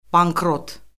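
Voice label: "bankrot", Azerbaijani; Orfoqrafiya Lüğəti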